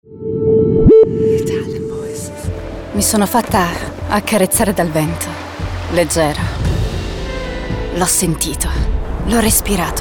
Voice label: ITALIAN VOICES Intense Emotional